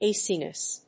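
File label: "a sinus", English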